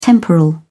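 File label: "temporal", British English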